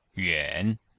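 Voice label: "yuan3", Chinese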